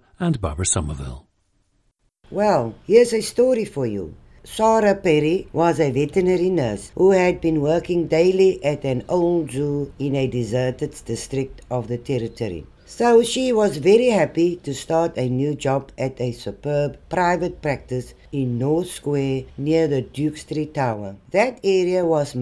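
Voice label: south africa, dialects of English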